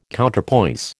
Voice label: "Counterpoise", American

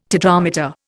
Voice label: "tetrameter", Indian

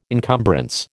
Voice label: "encumbrance", American

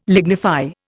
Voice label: "lignify", Indian

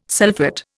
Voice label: "sulphate", Indian

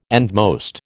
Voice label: "endmost", American

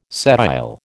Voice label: "sedile", American

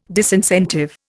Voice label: "disincentive", Indian

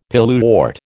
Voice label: "pilewort", American

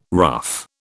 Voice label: "rough", British

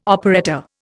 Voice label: "operetta", Indian

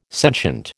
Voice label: "sentient", American

